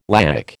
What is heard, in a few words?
"laic", American